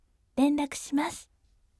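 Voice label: voice renraku shimasu gentle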